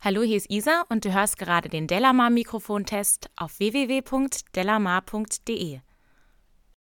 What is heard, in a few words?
speech f